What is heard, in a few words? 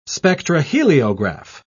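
"spectroheliograph", English, British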